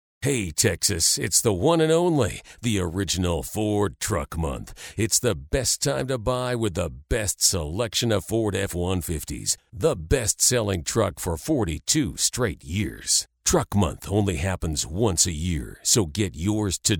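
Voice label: Canadian English, male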